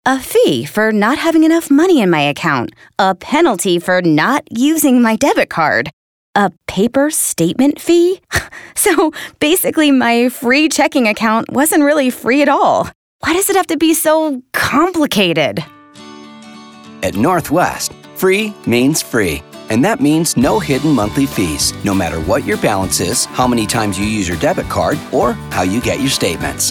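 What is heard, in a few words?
Bank 60 voice over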